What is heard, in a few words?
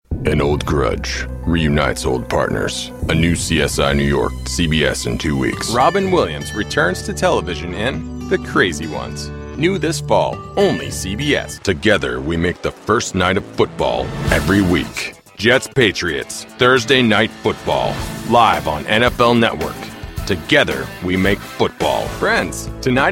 PROMO